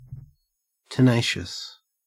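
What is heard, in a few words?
"tenacious", Australian; pronunciations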